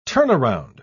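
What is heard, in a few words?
"turnaround", English word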